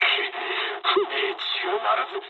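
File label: bomb failure mood med, speech japanese; War Thunder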